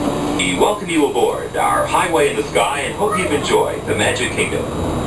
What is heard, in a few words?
Monorail welcome message; recordings from Disney theme parks and movies